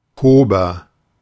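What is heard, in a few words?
"Kober", German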